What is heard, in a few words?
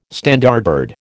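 "Standardbred", American English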